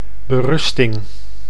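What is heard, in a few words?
"berusting", Dutch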